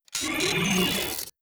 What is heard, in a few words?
robot avian greetings; Stellaris; synthetic dawn